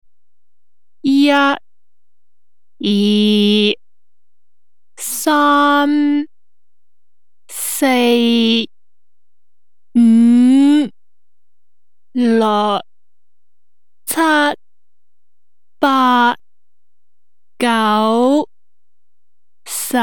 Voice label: kantonesisch

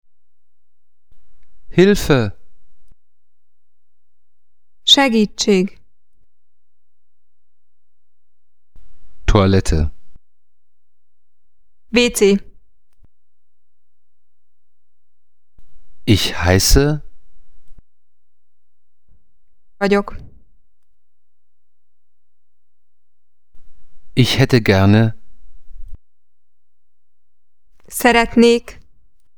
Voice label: ungarisch

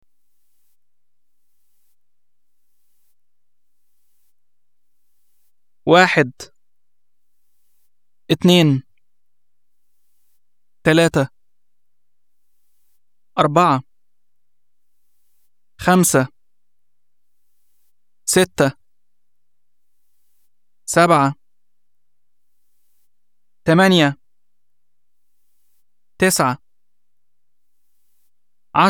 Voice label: aegyptisch

